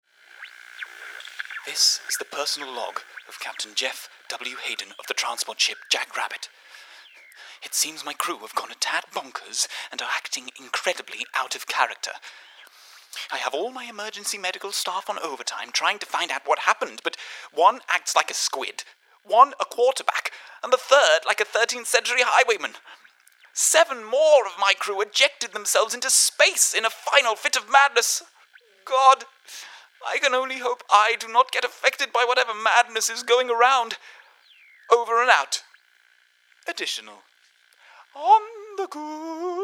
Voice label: Narrative